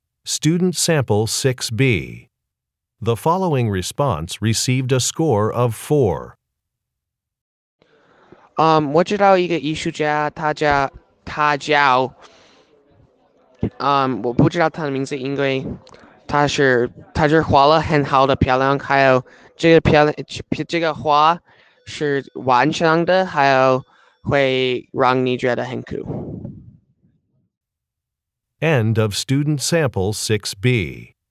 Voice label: chinese conversation; Conversation 6 Sample B

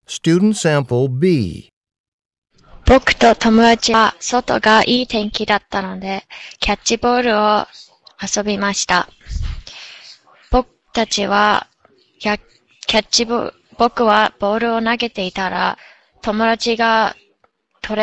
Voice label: Japanese story narration; Story Narration Sample B (Score of 4)

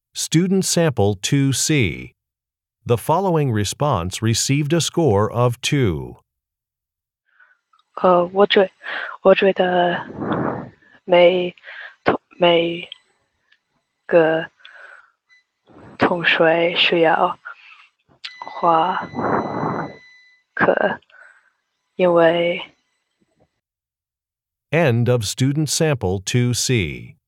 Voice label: chinese conversation

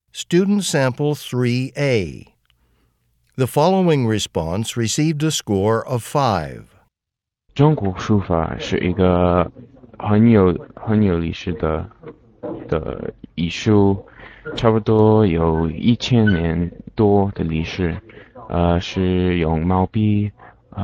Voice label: chinese conversation; Conversation 3 Sample A (Score of 6)

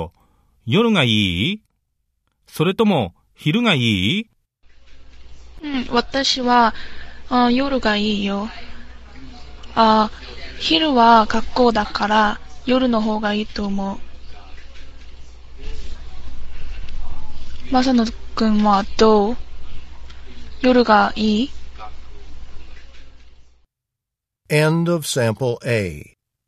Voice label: japanese conversation; Conversation 1 Sample A (Score of 6)

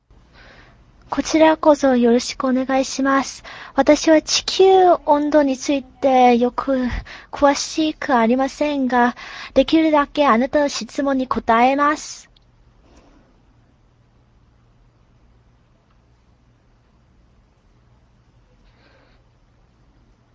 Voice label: japanese sample conversation

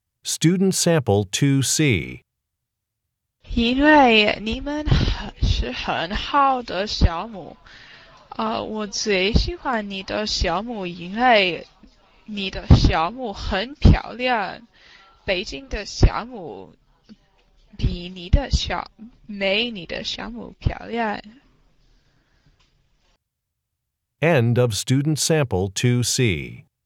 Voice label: chinese conversation

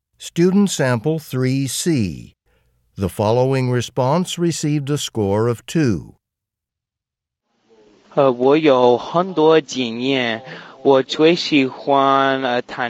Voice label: chinese convo; Conversation 3 Sample C (Score of 2)

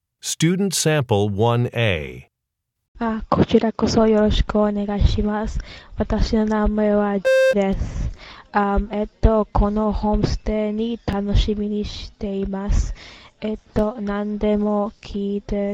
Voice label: japanese conversation; Conversation 1 Sample A

